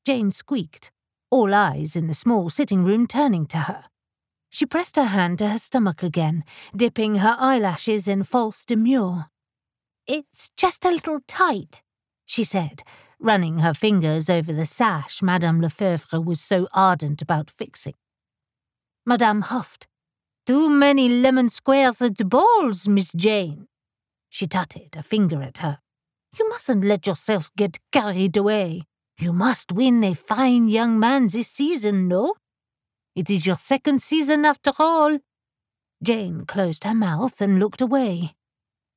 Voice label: Regency Romance 3rd person with french accent dialogue